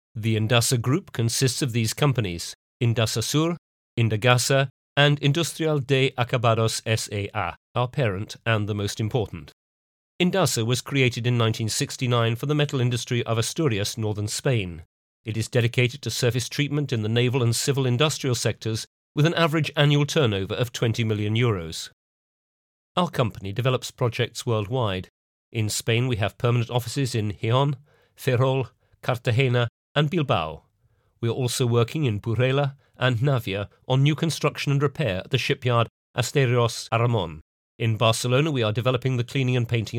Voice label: corporate sample